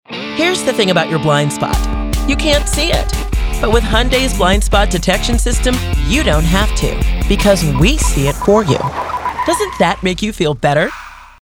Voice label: Radio Ad, American Voice Over